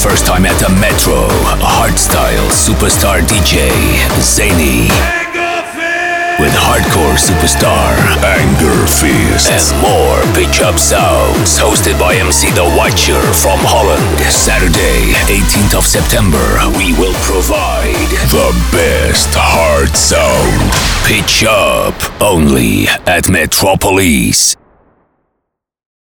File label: party commercial; pitch up; Breakout